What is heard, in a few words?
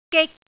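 "gik3", Cantonese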